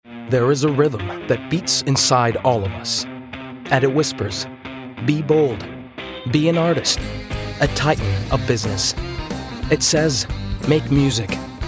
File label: Commercials